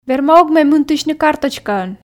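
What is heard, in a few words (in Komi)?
верма ог ме мынтысьны карточкаӧн, Komi, female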